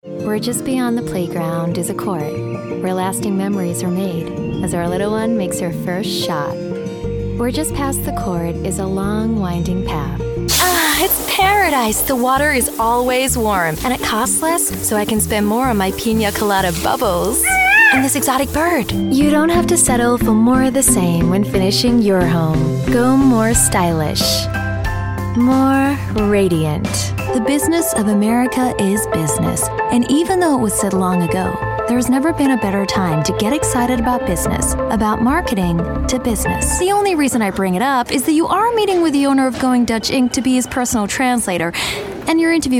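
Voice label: English US, female, commercial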